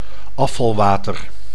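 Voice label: "afvalwater", Dutch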